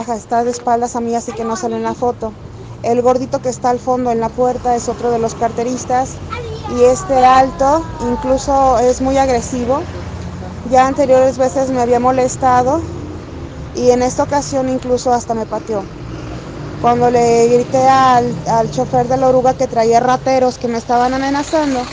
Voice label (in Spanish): Testimonio de mujer